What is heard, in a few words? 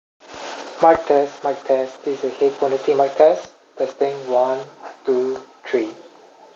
quiet; Melomania 1